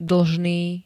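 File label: "dlžný", Slovak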